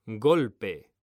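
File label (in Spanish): "GOLPE" Spanish, male; mekanta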